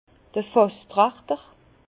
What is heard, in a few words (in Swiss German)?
"fasstrachter", Bas Rhin; entonnoir de tonneau; vin